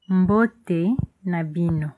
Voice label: hello, lingala

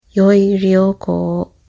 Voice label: bon voyage, japanese

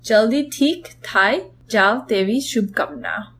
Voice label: get well soon, gujarati

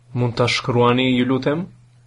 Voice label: write, albanian

